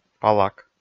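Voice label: "палак", Komi, male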